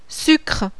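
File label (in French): "SUCRE", French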